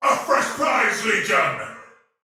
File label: See player shout, male soldier; Dialog; Barks; Battle; Sinecure